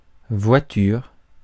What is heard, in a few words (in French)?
"voiture", mots francais